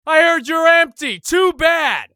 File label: battle cry; eft; usec